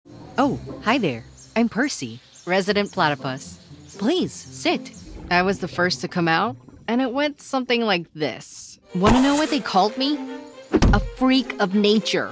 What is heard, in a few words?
english american animation